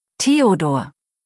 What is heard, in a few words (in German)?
"Theodor", deutsch, jungennamen